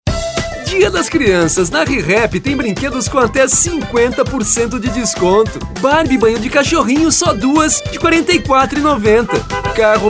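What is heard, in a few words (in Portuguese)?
Spots varejo, locutor publicitário; Demo Spots Varejo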